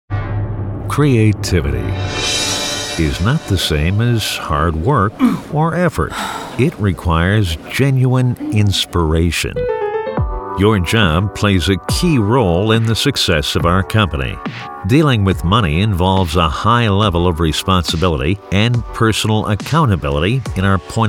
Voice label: eLearning Male